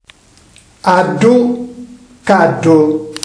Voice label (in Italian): occlusiva retroversa sonora